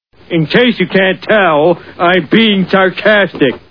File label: sarcastic